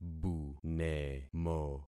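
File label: "bunemo", concatenated syllables; Affixing experiment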